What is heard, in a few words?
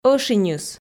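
"ӧшиньыс", Komi, female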